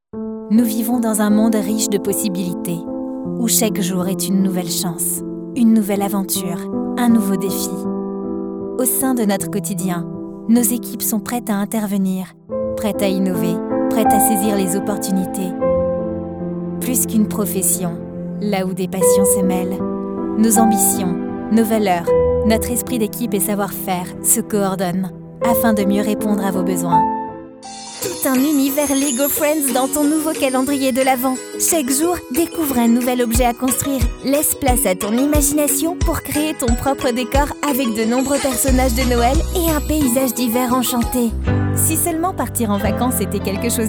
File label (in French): VOIX OFF FEMME